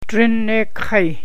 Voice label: "Tuesday", Gwich'in; Drin neekaii